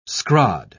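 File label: "scrod", British English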